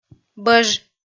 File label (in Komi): "бӧж" kpv f